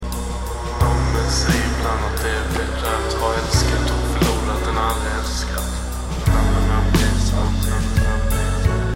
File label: swedish